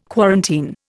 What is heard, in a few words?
"quarantine", Indian